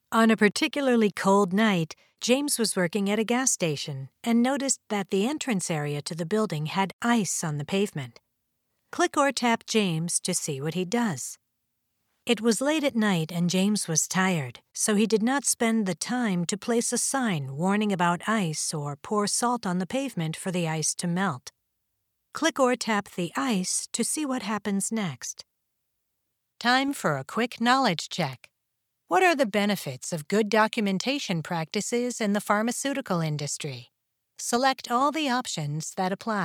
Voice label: eLearning, female voice over

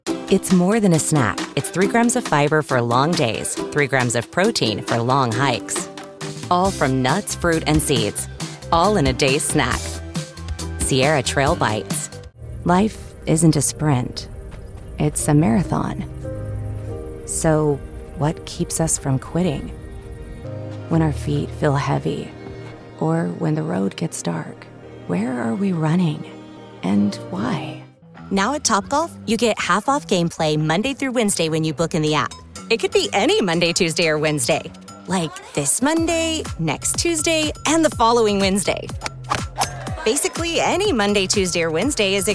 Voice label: commercial, female voice over

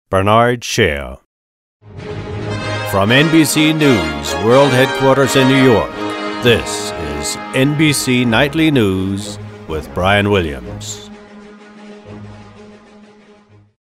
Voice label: Nightly News Open, Auditions; NBC

